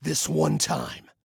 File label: Team Anytime, Male